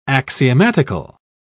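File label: "axiomatical", British English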